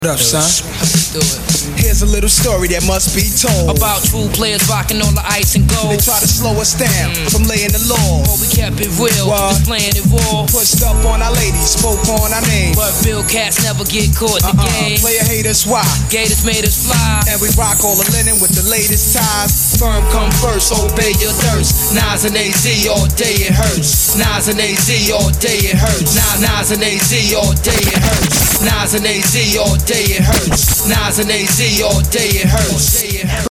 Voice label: Sprite Commercial